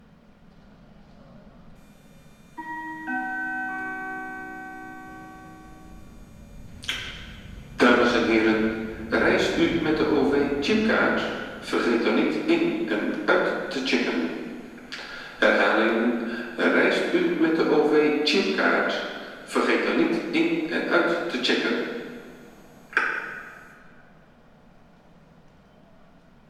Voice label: Announcement Chipcard; Blaak

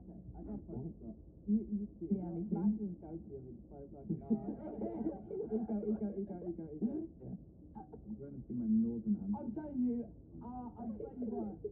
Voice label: Muffled Voices

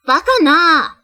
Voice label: "Idiot", Japanese

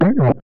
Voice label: Questioning Vocal Tone "Huh"

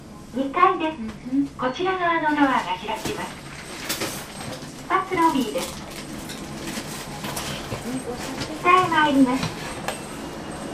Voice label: Announcement

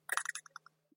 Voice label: Creature talk

